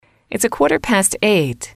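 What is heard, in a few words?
its a quarter past eight, English, female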